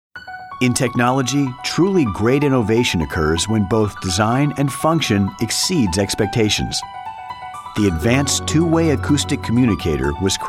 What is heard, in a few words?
Corporate